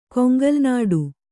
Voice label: "ಕೊಂಗಲ್ನಾಡು", Kannada